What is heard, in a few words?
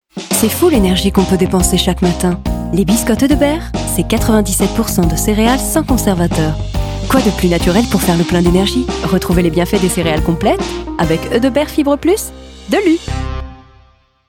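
French European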